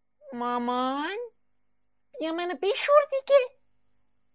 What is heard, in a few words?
voice recorder